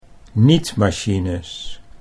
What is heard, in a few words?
"nietmachines", Dutch